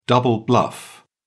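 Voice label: "double bluff", British English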